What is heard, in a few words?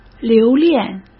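"留恋", Mandarin Chinese; chinese flashcards; hsk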